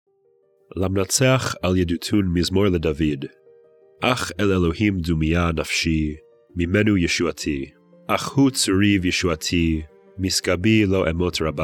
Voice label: poetry and prayer psalm hebrew